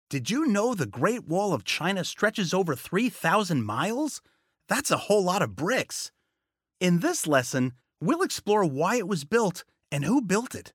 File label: E-Learning Kids EDU